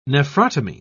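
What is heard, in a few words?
"nephrotomy", British English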